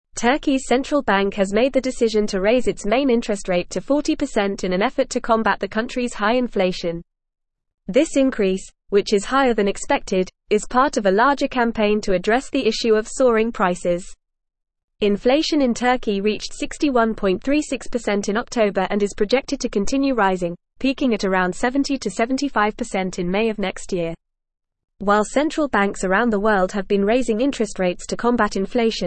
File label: English Advanced FAST Reading; English Newsroom; Turkey Raises Interest Rates to Combat High Inflation